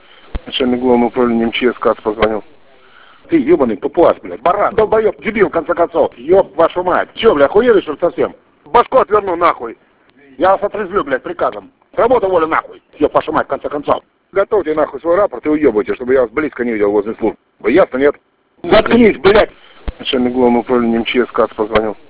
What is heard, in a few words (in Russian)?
monolog